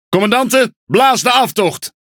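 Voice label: NL Speech Quick Commands Back, Dutch; World Of Warships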